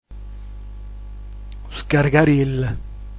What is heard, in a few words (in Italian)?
luscarcarill, dialetto giulianova